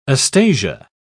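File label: "astasia", British English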